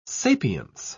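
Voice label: "sapience", British English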